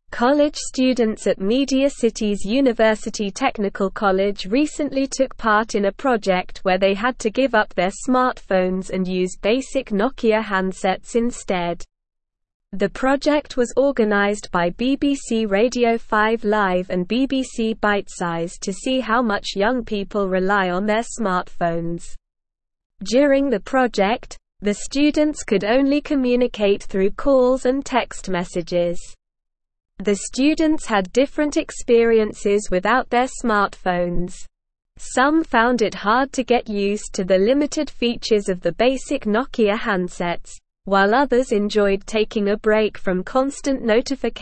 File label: English Upper Intermediate SLOW Reading; Students Detox from Smartphones to Reflect on Usage